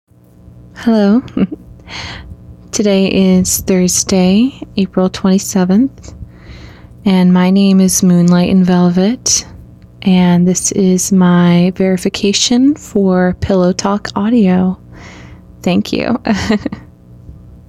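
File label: Verification, Female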